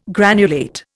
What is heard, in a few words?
"granulate", Indian